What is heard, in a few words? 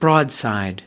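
"broadside", US English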